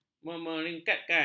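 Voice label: "memeringkatkan", Malay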